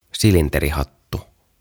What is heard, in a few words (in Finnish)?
"Silinterihattu" "Top Hat"; OTAVA KONSERNI